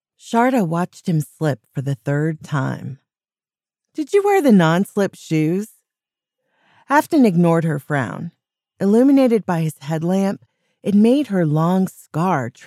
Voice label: Sci-Fi with MF Dialogue